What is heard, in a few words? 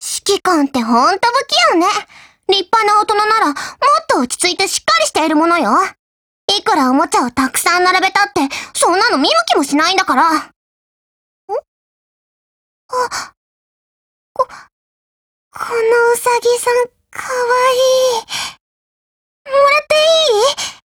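DIALOGUE Japanese; UMP45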